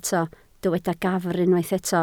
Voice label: "gafr", Welsh

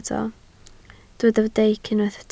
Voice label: "deic", Welsh

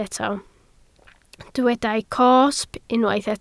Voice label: "cosb", Welsh

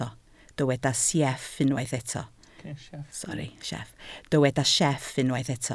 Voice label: "sieff", Welsh

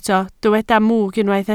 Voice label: "mwg", Welsh

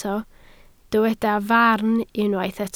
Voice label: "farn", Welsh

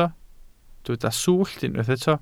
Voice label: "swllt", welsh

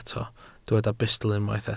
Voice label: "bustl", welsh

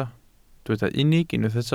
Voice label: "unig", welsh

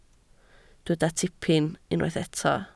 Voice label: "tipyn", Welsh

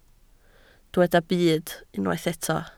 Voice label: "byd", Welsh

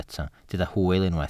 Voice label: "hwyl", Welsh

